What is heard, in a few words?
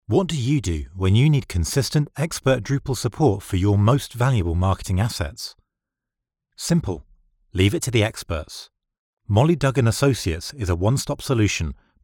Explainer